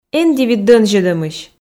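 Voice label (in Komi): эн дивит донзьӧдӧмысь, Komi, female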